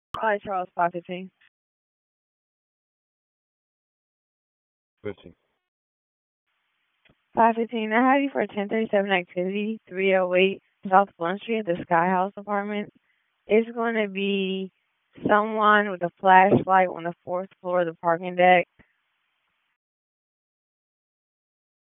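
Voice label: Police Southeast District Dispatch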